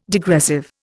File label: "degressive", Indian